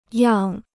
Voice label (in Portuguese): "样", Chinês